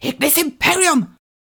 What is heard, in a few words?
ignis imperium rushed, incantations older female fire spells